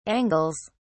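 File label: "angles", British English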